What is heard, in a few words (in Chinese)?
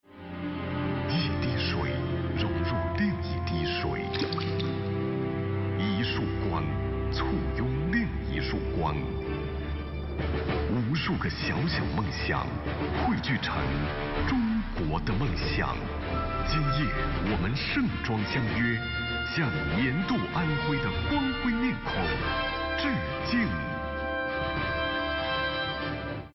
颁奖解说 片花; 心动安徽